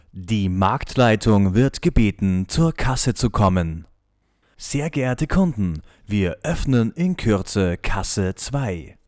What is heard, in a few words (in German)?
Sparansage